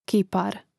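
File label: "kipar", Croatian; Mrežnik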